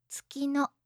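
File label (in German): "tsukino", japanische Mädchennamen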